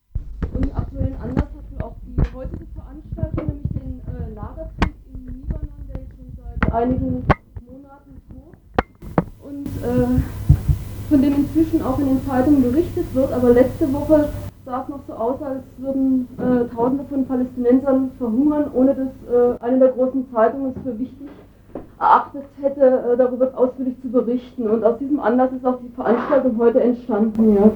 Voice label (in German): Anmoderation